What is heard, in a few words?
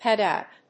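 "pad out", English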